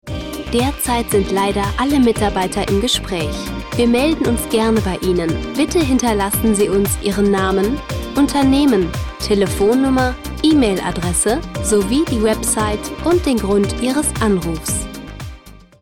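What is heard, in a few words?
deutsch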